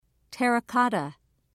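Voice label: "terra cotta", English, British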